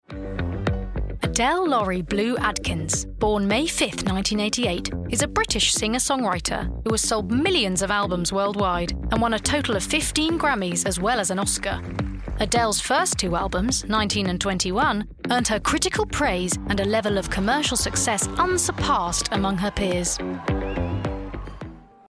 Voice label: Narrative